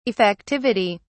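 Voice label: "effectivity", British English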